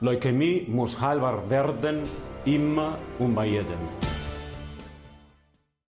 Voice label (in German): Ansage mit Musik